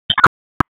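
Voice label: Sheriff's Office Dispatch; WSO DISP 1; wake p25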